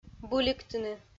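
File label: "быликтыны", Komi-Zyrian, female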